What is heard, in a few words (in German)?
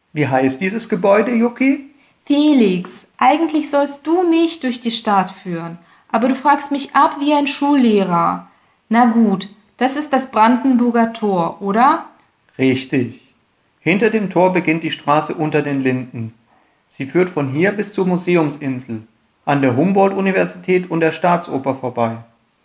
Dialog